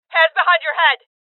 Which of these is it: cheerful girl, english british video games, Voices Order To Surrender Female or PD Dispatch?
Voices Order To Surrender Female